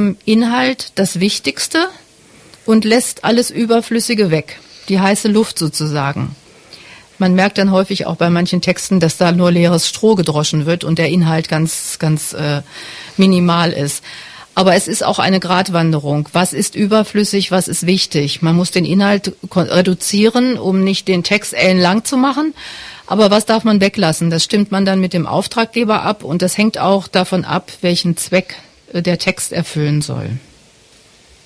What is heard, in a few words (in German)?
Leichte Sprache; Maggiwuerfel